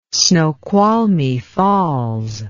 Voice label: "snoqualmie falls", english